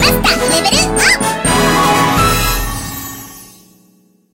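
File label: level up master, Japanese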